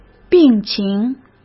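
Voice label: "病情", Mandarin Chinese; hsk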